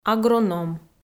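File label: "агроном", Komi-Zyrian, female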